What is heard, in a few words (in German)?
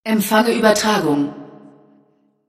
Empfange Sms Girl